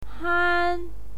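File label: "han", Mandarin Chinese, first tone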